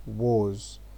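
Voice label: "woze", Nigerian Pidgin, male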